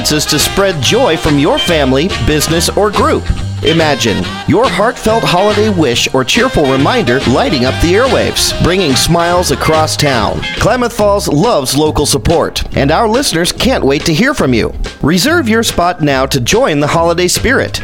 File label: Radio Christmas Card Ad; KKFO; Underwriting announcements; AdMaster Ads